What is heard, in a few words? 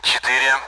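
Speech, Russian, AWACS; Digits; DCS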